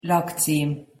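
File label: "lakcim", Hungarian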